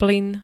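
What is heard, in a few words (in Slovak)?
"plyn", Slovak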